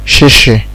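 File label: "shishi", Nigerian Pidgin, male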